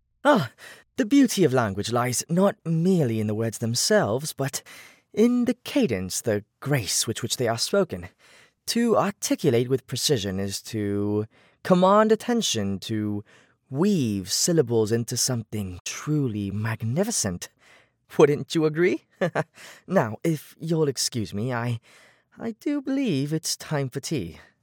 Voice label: British Accent Conversational Friendly Authentic Warm Joking Banter; male voiceover